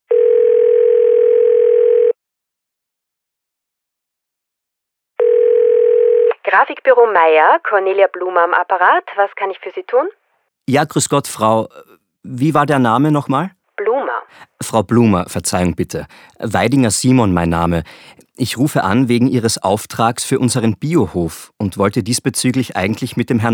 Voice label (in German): Formelles Telefonat Kundengespraech